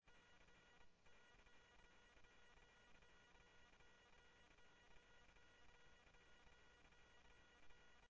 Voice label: scanner audio trunk

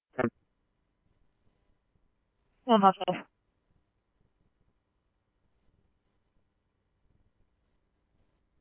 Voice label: Chandler Police, scanner; CPD-Ptrl B; RWC; C-Chandler

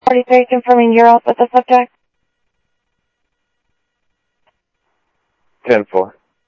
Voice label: Sheriff, scanner audio; MCSO - EAST Sheriff-EastCnty; South Scottsdale

